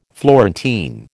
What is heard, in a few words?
"Florentine", American